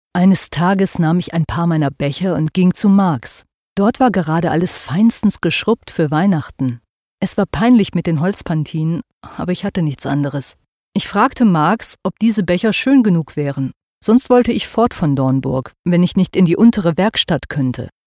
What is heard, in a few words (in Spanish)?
locutora alemana german voiceover